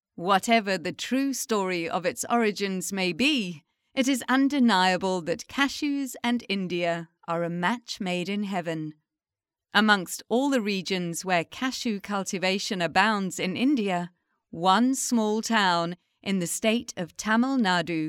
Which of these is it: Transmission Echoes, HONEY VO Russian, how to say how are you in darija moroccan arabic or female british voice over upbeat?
female british voice over upbeat